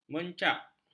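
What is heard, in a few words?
"mencak", Malay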